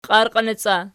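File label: "qiarqinoci" ergative, Archi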